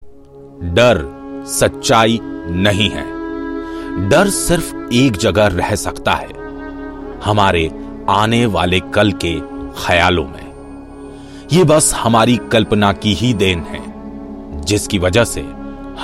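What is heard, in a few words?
narration hindi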